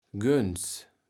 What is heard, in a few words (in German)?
"Göns", Platt